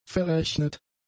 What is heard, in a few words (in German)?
"verrechnet", german; adjectives